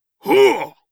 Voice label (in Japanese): はあっ！！, 戦闘ボイス 中年・低音